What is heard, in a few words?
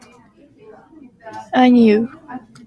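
"Ainu", Japanese female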